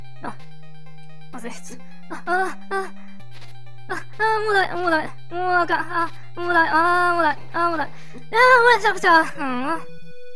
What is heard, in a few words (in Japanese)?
ダメージボイス; 悪魔城ドラキュラ(FC版)